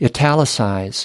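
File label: "italicize", US English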